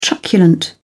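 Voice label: "truculent", British English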